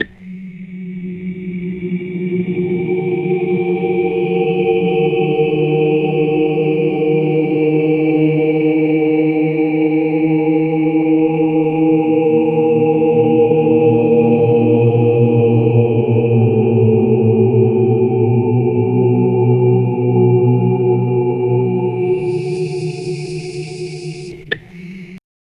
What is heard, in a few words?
a haunting voice saying; narration